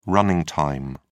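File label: "running time", British English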